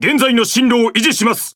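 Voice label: Speech Pilots Bomber Airborne, Japanese; World Of Warships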